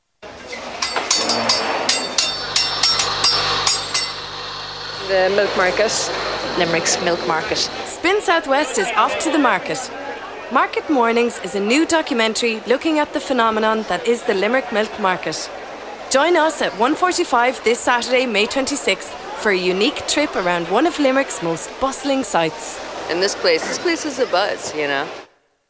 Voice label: radio promo; market mornings